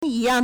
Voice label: "yi1 yang4", Chinese